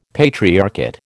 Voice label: "patriarchate", American

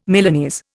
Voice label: "Milanese", Indian

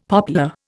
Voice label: "poplar", Indian; Dictionary

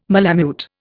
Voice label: "malamute", Indian

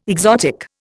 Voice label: "exotic", Indian

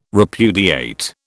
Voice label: "repudiate", British

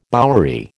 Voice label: "bowery", American

